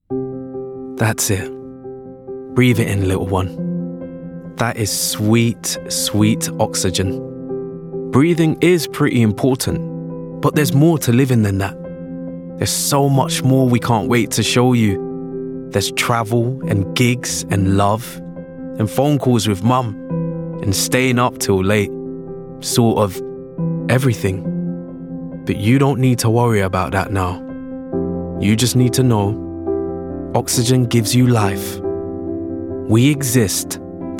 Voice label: commercial reel

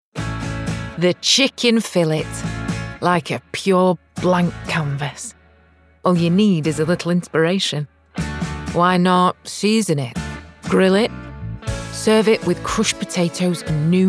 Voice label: Commercial; Heinz

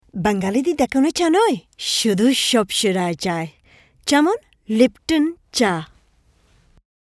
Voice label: Bengali Commercial

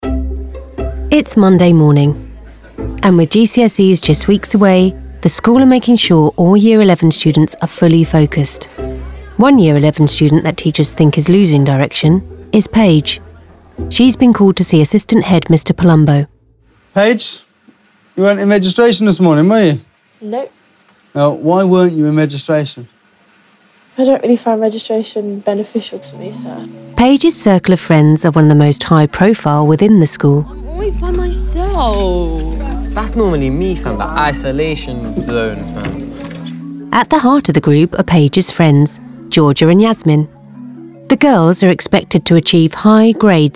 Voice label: Educating Narration Reel

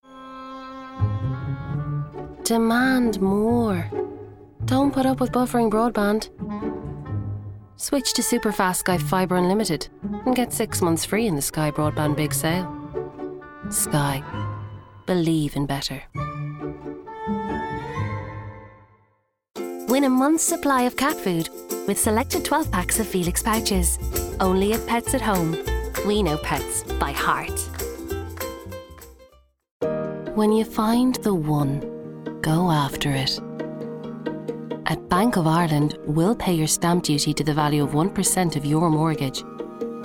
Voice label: Commercial Reel

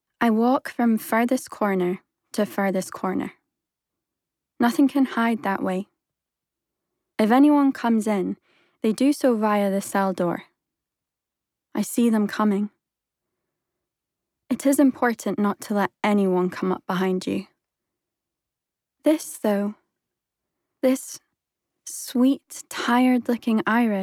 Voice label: Audiobook; Hex

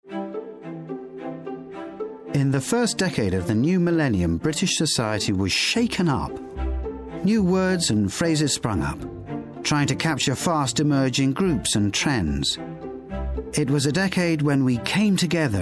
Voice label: narrative

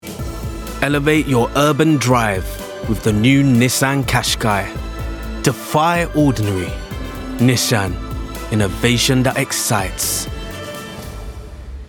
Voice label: commercial; NISSAN

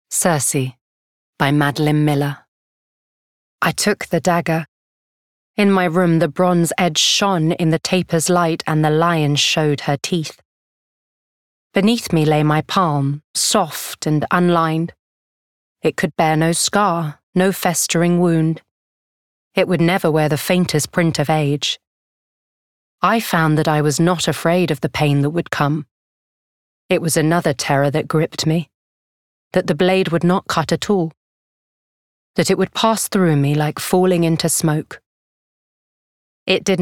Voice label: Audiobook; Cersei

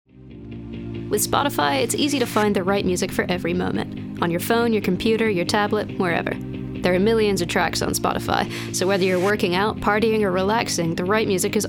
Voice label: commercial voice reel

